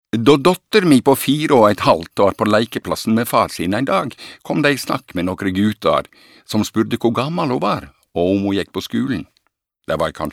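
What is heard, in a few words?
Norwegian dialect nynorsk Voss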